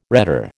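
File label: "rhetor", American English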